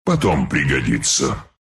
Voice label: bottle, ru